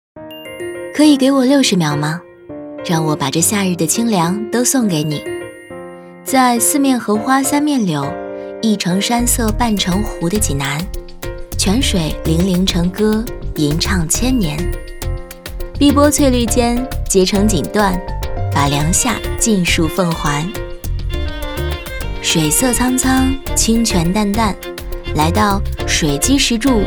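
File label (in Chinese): 女 素人旁白解说 甜美青春旅游vlog; 房琪风; 黑虎泉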